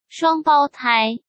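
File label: "双胞胎", Mandarin Chinese; chinese flashcards; hsk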